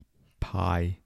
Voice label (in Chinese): "派" pai33, 台城, 男